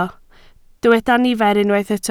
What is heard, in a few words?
"nifer", Welsh